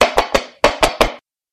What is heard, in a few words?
monster centaur